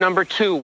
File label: number two male; Voice; numbers and letters